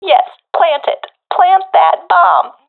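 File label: friend plant bomb, professional female; vo; agents; Source Model Packs; Counter Strike Model Pack; CS2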